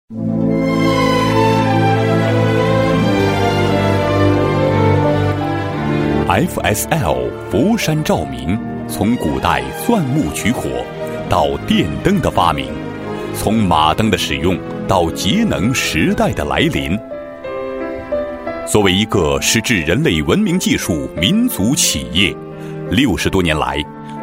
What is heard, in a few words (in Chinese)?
男 专题 照明; 佛山; 佛山照明